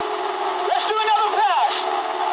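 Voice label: English bomb failure mood low; speech; War Thunder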